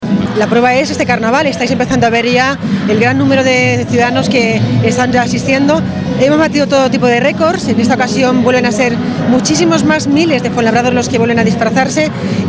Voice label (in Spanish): noticias